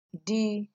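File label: "di", Nigerian Pidgin, female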